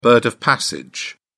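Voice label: "bird of passage", British English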